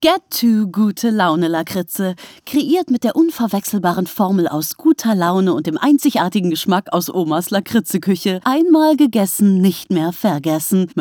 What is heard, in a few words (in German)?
Werbung, Sprecherin; ARTE MEDIATHEK